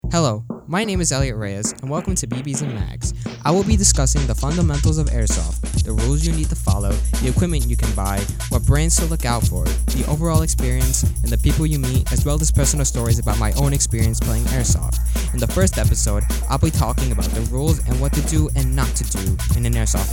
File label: Podcast trailer with music; LIHSA Student Podcasts